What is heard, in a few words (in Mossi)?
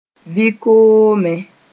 "vɩ koomẽ (sẽn)", moore